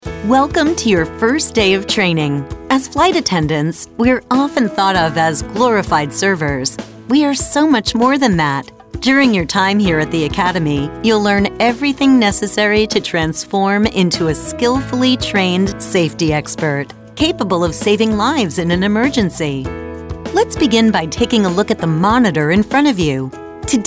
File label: english american e-learning